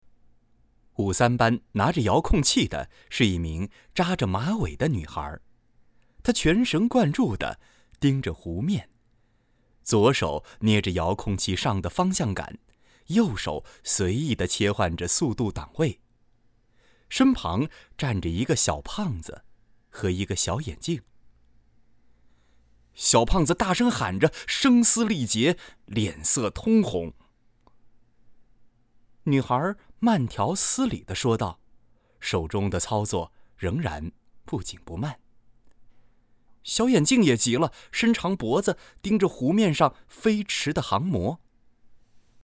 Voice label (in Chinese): 旁白